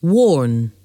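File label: "warn", English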